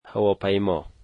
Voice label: "airplane", dari

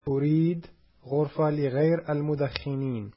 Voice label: arabic I would like a non smoking room

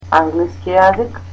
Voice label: English, macedonian

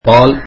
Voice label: tamil milk

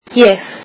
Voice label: icelandic I

